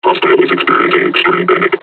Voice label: taunt, combine charger; Half Life Alyx

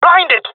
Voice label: blinded, jungle male; Counter Strike Model Pack; CS:GO

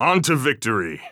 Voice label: pep talk; vo; Counter Strike Model Pack; CS:GO; phoenix coop

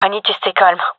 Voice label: scared, gendarmerie fem; vo; agents

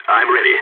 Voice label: in position, balkan

